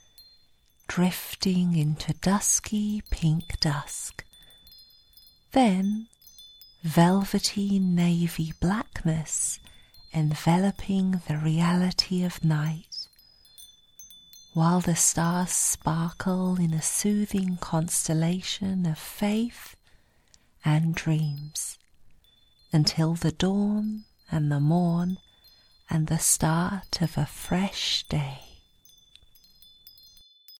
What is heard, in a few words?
softly spoken spell asmr